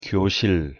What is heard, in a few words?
"교실", Korean